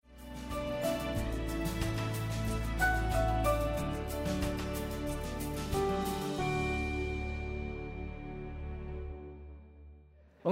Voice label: Sermon English